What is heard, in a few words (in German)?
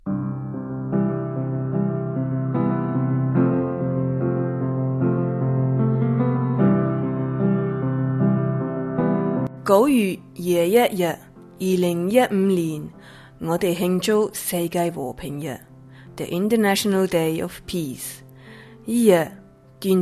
Jingle Chinesisch; Jingle Weltfriedenswoche Chinesisch